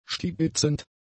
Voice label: "stibitzend", German; adjectives